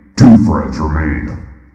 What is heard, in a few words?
2 frags left, robotic announcer